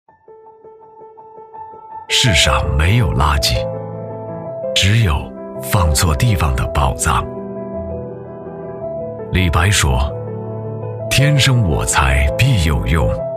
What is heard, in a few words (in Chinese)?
男 科技专题 低沉科技感; 醇厚磁性 低沉走心